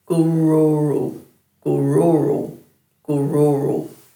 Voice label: "gururu", Dhurga